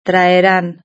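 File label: "traerán", Spanish; verb-conjugator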